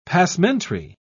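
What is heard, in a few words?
"passementerie", British English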